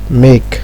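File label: "make", Nigerian Pidgin, male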